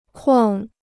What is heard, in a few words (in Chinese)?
"框", Chinese